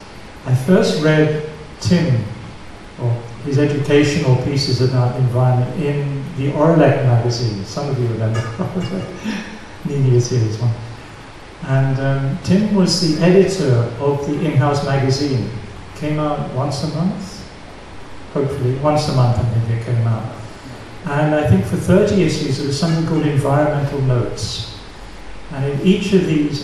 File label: presentation english; wildlife book launch